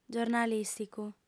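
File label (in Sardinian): "giornalìsticu", Sardinian, female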